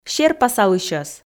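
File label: "серпасалысьӧс" Komi, female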